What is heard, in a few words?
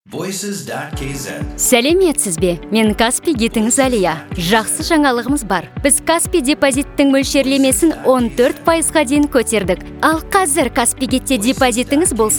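Kazakh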